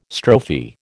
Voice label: "strophe", American